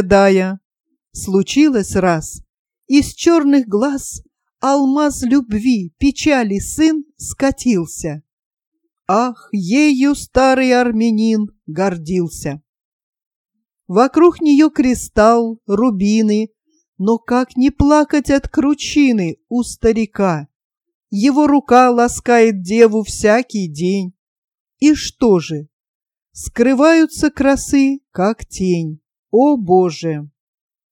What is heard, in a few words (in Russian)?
грузинская песня; lermontov mihail yurievich